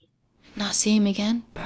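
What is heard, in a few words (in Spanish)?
Voice Acting, Spanish, female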